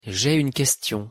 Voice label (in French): j'ai une question, pronunciation fr